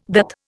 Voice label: "DAT", Indian

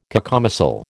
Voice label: "cacomistle", American

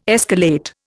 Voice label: "escalate", Indian

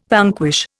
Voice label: "vanquish", Indian

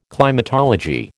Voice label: "climatology", American

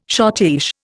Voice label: "schottische", Indian

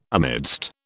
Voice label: "amidst", British

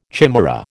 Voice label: "chimaera", American

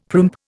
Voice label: "primp", Indian; Dictionary

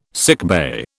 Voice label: "sickbay", British

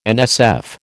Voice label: "NSF", American

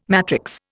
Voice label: "matrix", Indian

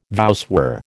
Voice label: "voussoir", American

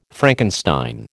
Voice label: "Frankenstein", American

